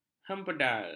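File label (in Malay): "hempedal", Malay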